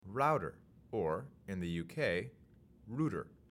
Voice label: "router" pronounced